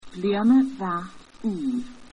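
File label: new year Irish; bliadhna mhath ùr; Scottish Gaelic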